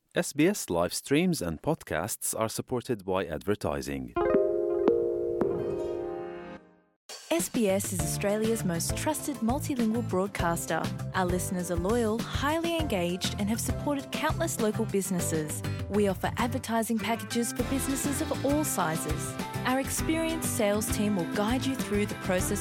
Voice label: gujarati news bulletin; sbs